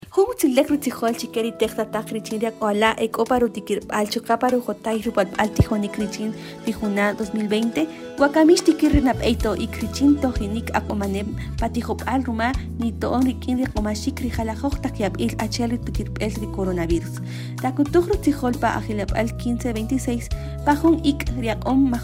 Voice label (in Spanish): Version Kakchikel